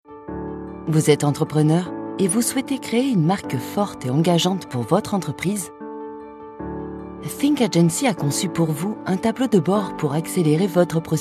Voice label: démos institutionnelles voix off

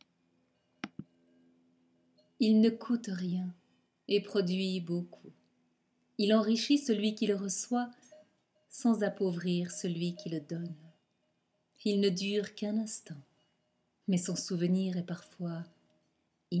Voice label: voix un sourire

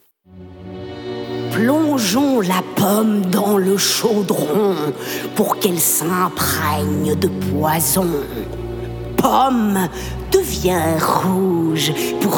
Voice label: Voix sorcière; Blanche Neige

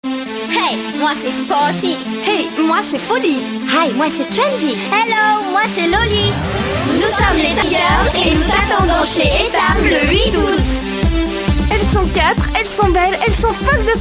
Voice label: transformation voix en ado; ♀fr; Etam

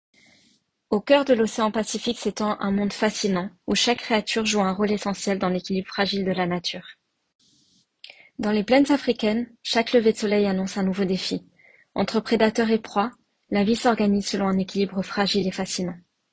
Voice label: exemples narrations

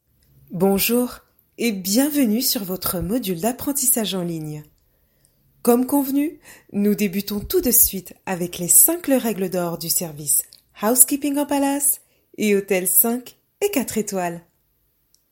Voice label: E-learning hôtellerie

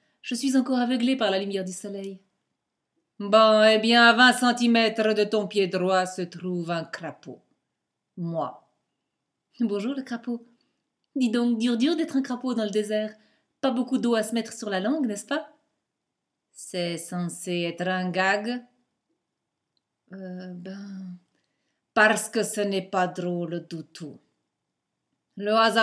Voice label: voix conte

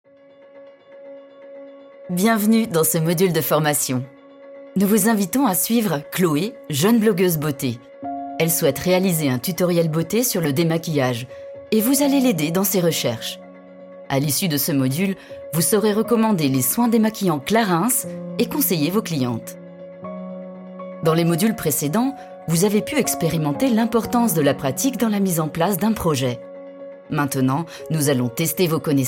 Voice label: Voix Off E LEARNING et MOTION DESIGN; Clarins

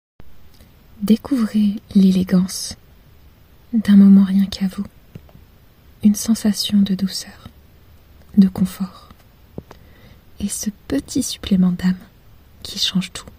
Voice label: Voix Pub

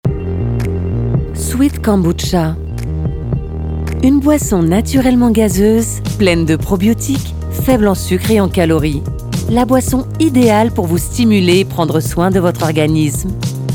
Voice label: Publicite Kombucha

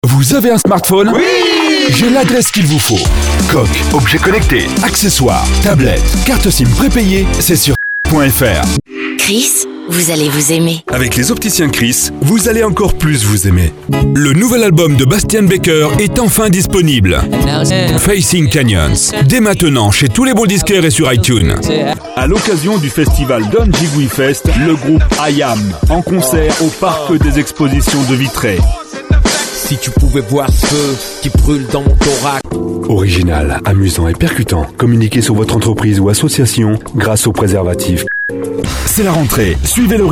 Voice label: PUB RADIO

